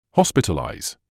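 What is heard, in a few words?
"hospitalise", British English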